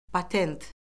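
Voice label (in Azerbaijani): "patent", Azerbaijani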